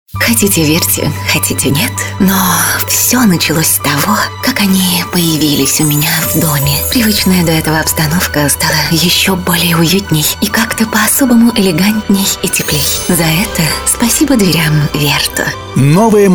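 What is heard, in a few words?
"yulya", Russian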